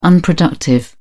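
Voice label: "unproductive", British English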